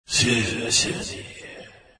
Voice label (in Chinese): thanks, zh; Dota 2